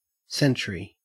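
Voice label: "century", Australian English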